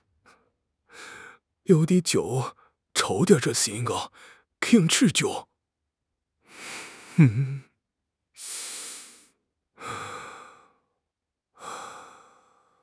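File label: "jia1 ban1", Chinese; HSK; HSK4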